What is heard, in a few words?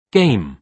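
"game", British English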